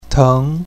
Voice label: "teng2", Chinese pinyin